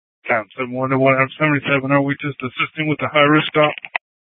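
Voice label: Mesa Police Department Superstition Dispatch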